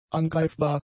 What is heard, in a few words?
"angreifbar", German; adjectives